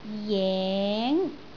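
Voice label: "jeng2", Cantonese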